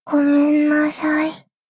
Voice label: sad sorry